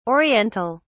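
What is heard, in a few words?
"Oriental", British English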